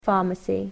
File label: "pharmacy", English